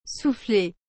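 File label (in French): "soufflee", French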